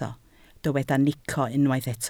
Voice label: "nico", Welsh